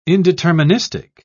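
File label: "indeterministic", British English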